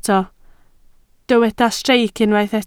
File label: "streic", Welsh